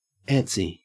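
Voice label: "antsy", Australian English; pronunciations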